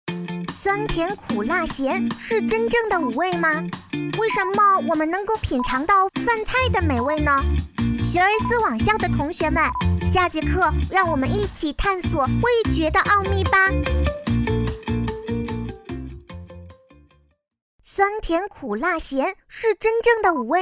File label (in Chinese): 女童; 学而思网校